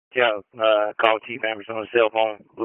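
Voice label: FIRE Operations, p25; FIRE OPS 3; wake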